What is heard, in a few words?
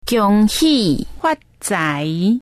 happy new year, Taiwanese